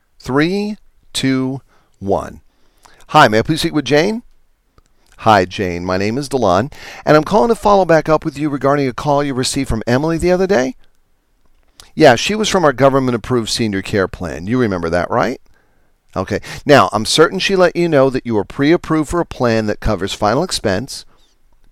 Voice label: Recorded Phone Script to Set Appointments; patriot insurance